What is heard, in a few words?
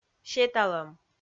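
"сеталӧм", Komi, female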